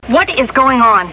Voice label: what is going on, female; questions

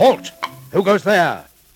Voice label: halt who goes there, male; questions

